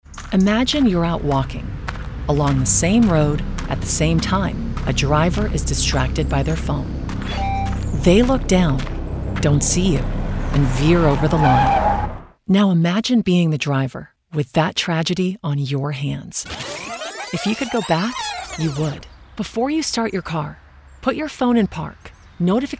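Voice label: Radio public service announcement, English; Distracted Imagine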